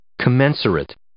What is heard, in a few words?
"commensurate", English